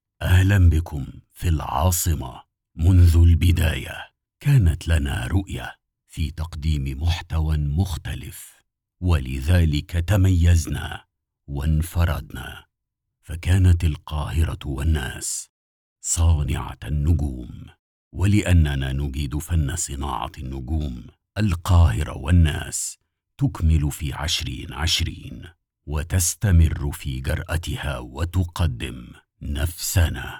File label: Promos Programs; The Sound of Egypt